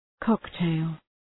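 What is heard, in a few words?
"cocktail", English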